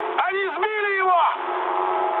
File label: aircraft destroyed, mood med, speech ru